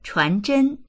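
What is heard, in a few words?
"chuan2zhen1", Mandarin Chinese; 传真; ファックス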